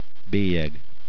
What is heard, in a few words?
"Stamp", hungarian